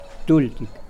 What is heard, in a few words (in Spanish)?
palabras amarillo, Nawat; pipil